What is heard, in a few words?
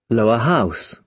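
"lower house", British English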